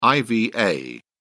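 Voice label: "iva", British English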